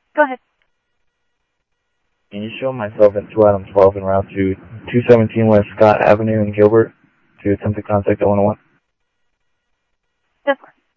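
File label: police radio scanner traffic, Mesa Simulcast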